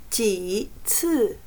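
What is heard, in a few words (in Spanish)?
"几次", chino mandarin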